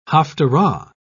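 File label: "haphtarah", British English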